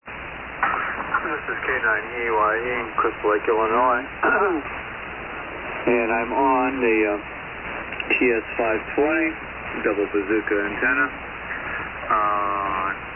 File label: Kenwood TS-520; Crystal Lake, IL.